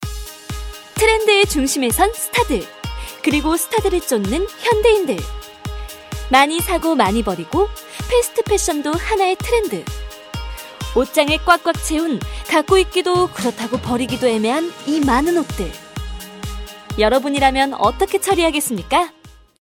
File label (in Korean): 광고 패션 빠른